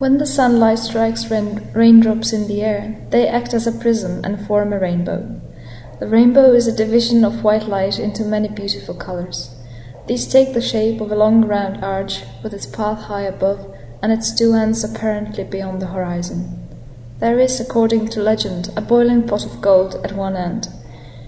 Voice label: czech

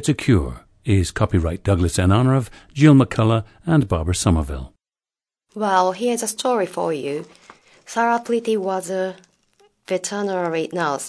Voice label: japan

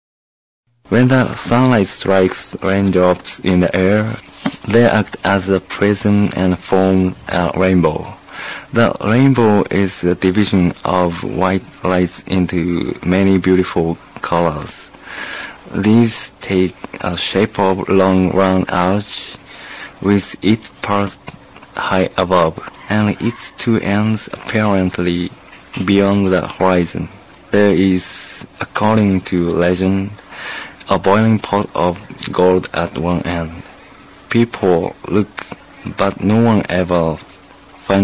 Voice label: japan

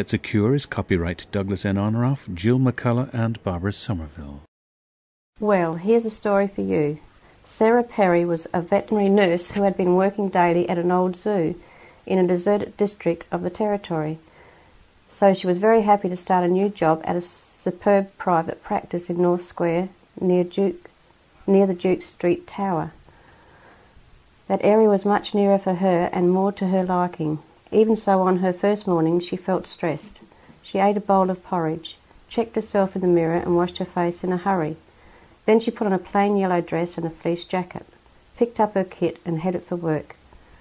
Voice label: australia

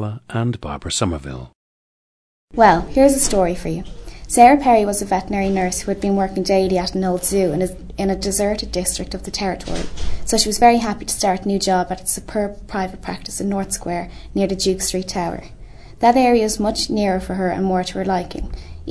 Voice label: ireland, dialects

